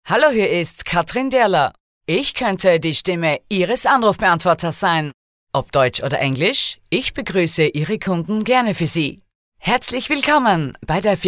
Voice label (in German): Sprecher Telefonansagen